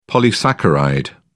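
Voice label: "polysaccharide", British English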